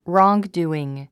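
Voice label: "wrongdoing", English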